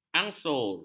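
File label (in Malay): "ansur", Malay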